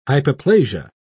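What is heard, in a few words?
"hypoplasia", British English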